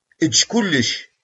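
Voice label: "Eǧǧemt Tom.", Kabyle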